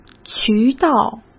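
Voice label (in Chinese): "渠道", Mandarin Chinese; hsk; chinese-flashcards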